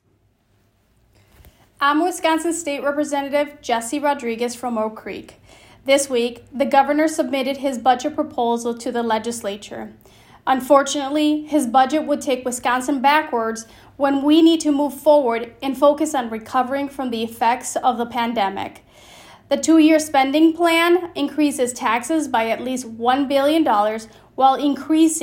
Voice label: Radio